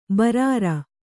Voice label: "ಬೇರೂರು", Kannada

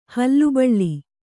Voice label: "ಹುಲಿಬಲೆ", Kannada

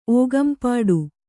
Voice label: "ಓಗಂಪಾಡು", Kannada

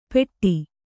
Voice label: "ಪೆಟ್ಟಿ", Kannada

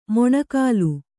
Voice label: "ಮೊಣಕಾಲ್", Kannada

